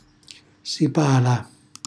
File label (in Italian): "sipala", Calabrese; cucina calabrese